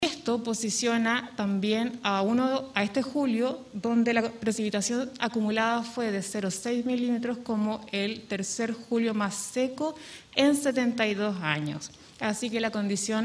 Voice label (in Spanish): CUÑA